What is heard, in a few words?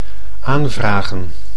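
"aanvragen", Dutch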